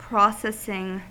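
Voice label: "processing", US English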